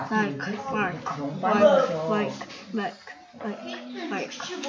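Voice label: "bicycle", spoken word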